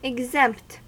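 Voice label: "exempt", American English; pronunciations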